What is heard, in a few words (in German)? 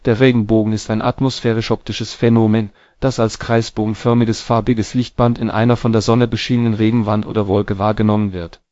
speaker, de DE; piper-voices